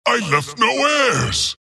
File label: wraith death; dota; skeleton king